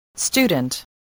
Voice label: "student", spelling